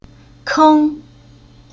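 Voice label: "Keng", Chinese syllable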